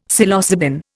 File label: "Psilocybin", Indian; Dictionary